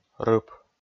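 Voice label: "рып", Komi, male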